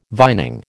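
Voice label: "vining", American English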